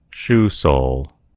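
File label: "sole shoe", American English; gcompris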